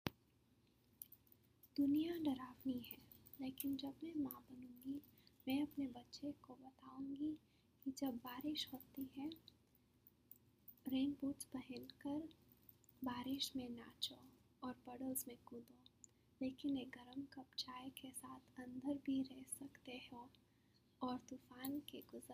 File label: Poem, Hindi